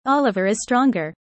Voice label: user fast